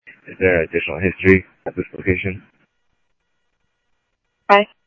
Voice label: Scottsdale Police, scanner audio; H-Scottsdale; SCTSDLE PD - PD2 ScottsdalePolice